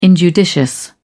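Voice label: "injudicious", British English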